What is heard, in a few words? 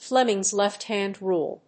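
"Flemings left-hand rule", English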